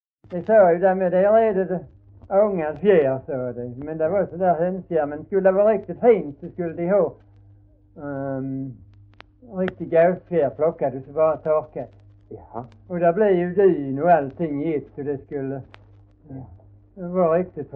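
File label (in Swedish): Hörja Skåne, dialekter; Folkminnen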